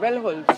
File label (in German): Dialekte; GHV Dialekte 2018